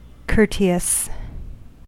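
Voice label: "courteous", American English; pronunciations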